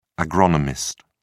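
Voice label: "agronomist", British English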